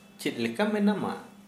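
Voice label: Santali, male, how are you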